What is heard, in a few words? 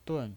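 "dun" Mandarin Chinese, fourth tone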